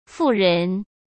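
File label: "富人", Mandarin Chinese; hsk; chinese-flashcards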